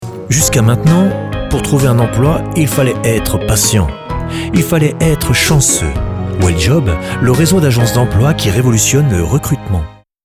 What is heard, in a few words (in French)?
voix pub; Pub TV / Radio / Corporate / Brand Content